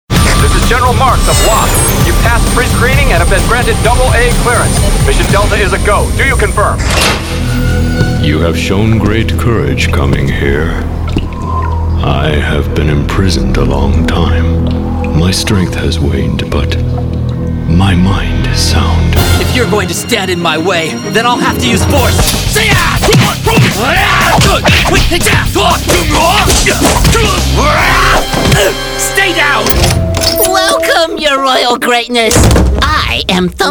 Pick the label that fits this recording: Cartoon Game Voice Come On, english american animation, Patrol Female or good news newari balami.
english american animation